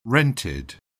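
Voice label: "rented", English, British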